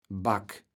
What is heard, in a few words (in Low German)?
"Back", kaevels platt